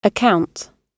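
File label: "account", British English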